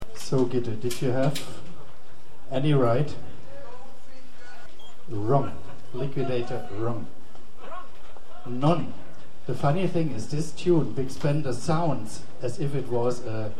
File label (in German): Ansage